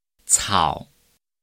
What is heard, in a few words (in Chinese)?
"草" Chinese